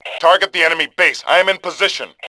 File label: base, Male; voice; Tribes2